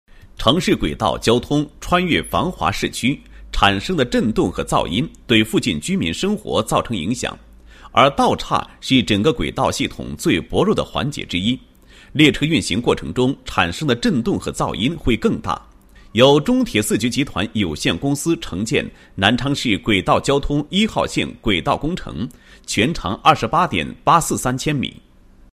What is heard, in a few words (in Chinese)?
解说词, 国语男声配音; 高音经济型; 城市轨道交通长枕式床道岔施工工法